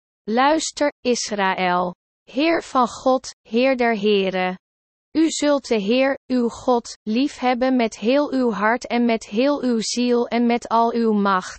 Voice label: DUTCH, chants; deut 6 4 5